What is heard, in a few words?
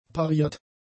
"pariert", German; adjectives